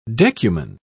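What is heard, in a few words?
"decuman", British English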